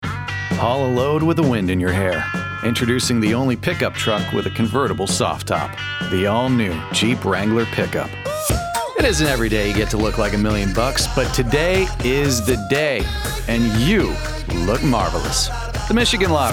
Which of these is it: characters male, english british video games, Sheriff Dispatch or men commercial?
men commercial